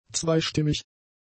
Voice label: "zweistimmig", German; adjectives

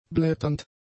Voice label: "blätternd", German; adjectives

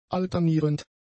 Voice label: "alternierend", German; adjectives

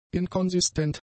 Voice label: "inkonsistent", German; adjectives

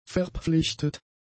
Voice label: "verpflichtet", german; adjectives

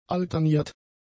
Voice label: "alterniert", German; adjectives

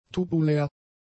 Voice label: "tubulär", German; adjectives

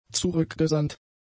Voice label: "zurückgesandt", German; adjectives

